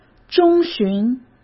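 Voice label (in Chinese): "中旬", Mandarin Chinese; hsk; chinese-flashcards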